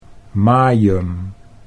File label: "majem", Dutch